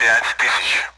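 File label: Speech Sound Russian Common AWACS Numbers; DCS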